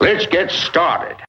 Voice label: let's get started male; Voice; introductions